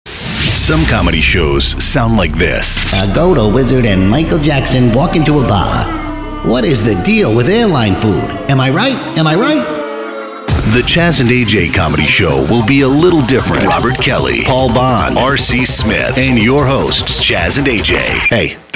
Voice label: Promo